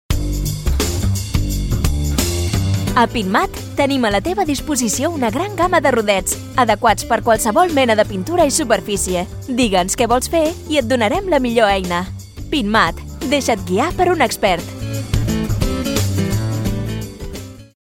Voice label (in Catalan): PROMO RODILLOS CATALAN; pinturas andalucia